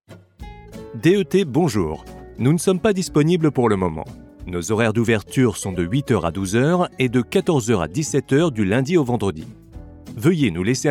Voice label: telephone